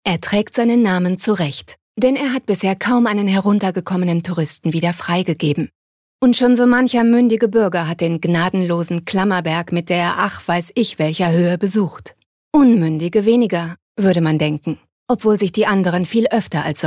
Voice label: Kommentar, Neutral

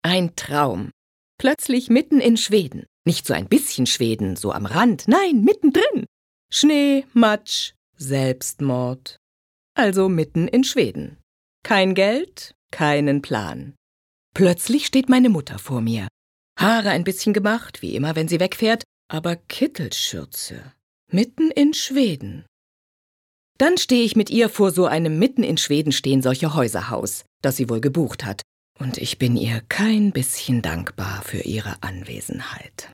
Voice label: Schauspiel Lebendig

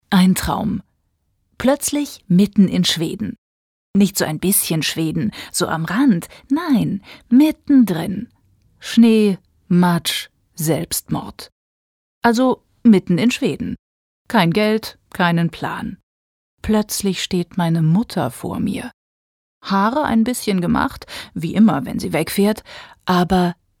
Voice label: Schauspiel, Neutral

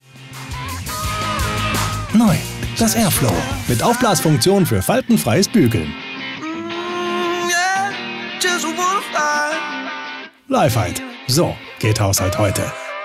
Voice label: Lebendig; Leifheit

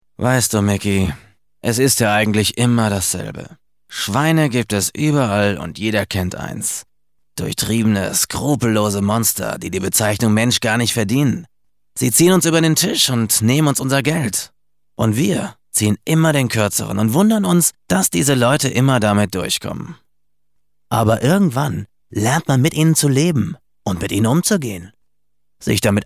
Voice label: Schauspiel Rau

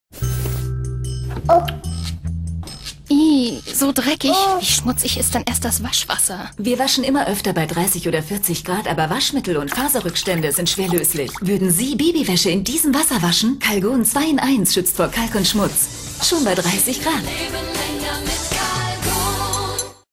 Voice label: Dynamisch

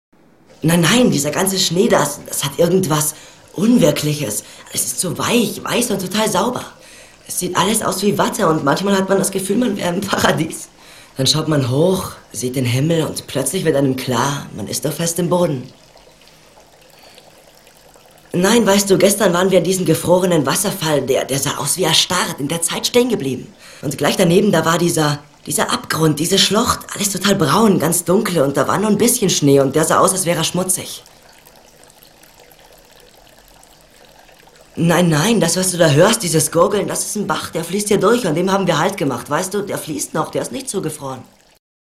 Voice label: Schauspiel, Engagiert

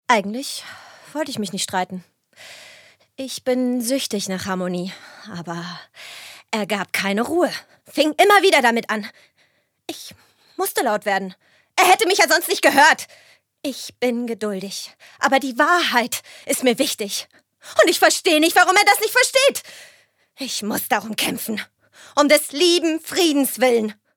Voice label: Schauspiel Energisch

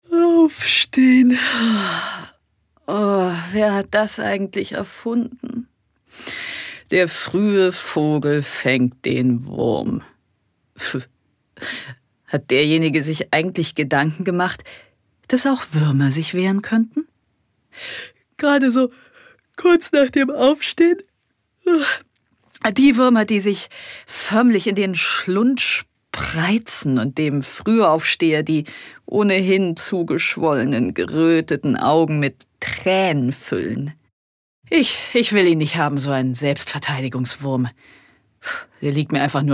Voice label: Lebendig; Der Fruehe Vogel

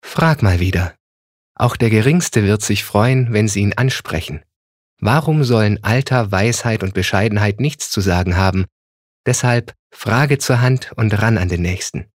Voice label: Werbung Sanft

